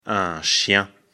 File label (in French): "chien", lecture fr; afrikalan